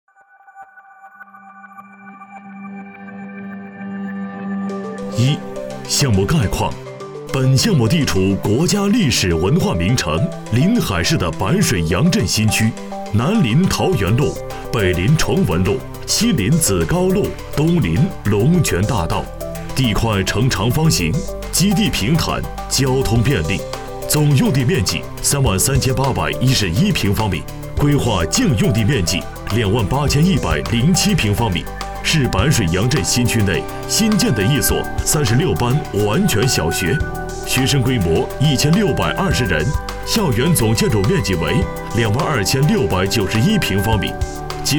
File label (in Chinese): 男 宣传片 工程介绍; 临海市白水洋镇